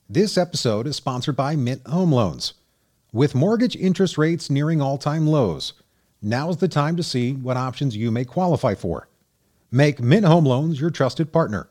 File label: narrator